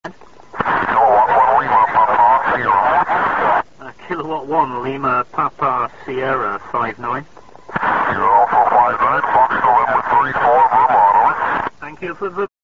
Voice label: qso